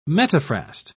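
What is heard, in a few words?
"metaphrast", English, British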